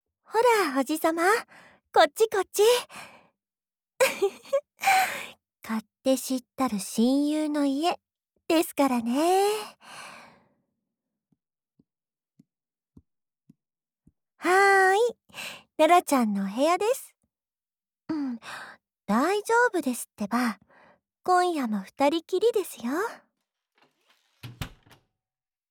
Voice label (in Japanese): ヒロインボイス